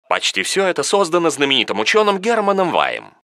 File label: narrator